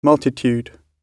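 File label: "multitude", British English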